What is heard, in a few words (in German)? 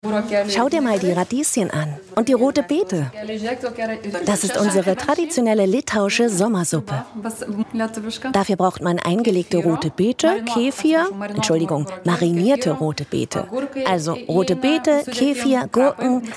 Voiceover